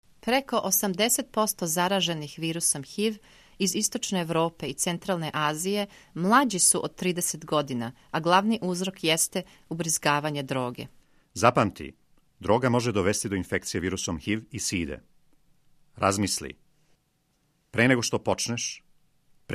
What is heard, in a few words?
spot serbian